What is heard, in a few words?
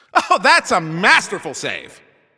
Announcer Goalie Save